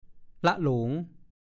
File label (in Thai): "ล่ะโหล", ภาษาโคราช